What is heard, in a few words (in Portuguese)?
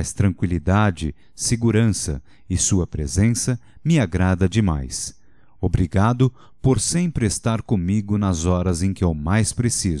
Amizade para amigo - M